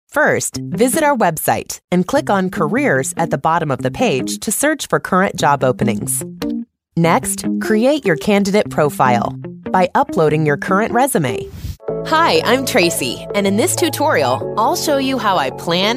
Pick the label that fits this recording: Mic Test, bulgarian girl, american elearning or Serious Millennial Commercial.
american elearning